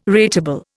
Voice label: "rateable", Indian

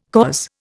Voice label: "coz", Indian

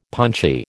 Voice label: "punchy", American

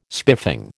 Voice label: "spiffing", American

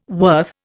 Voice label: "worth", Indian

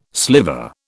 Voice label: "sliver", British

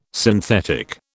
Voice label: "synthetic", British

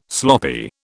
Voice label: "sloppy", British

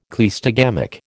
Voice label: "cleistogamic", American

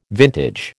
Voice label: "vintage", American

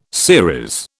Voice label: "series", British

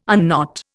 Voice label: "unknot", Indian

